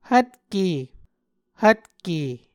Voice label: "hvtke", Muscogee